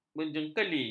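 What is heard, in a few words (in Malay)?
"menjengkeli", Malay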